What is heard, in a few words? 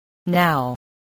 "now", voice, spelling